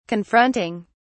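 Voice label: "confronting", British English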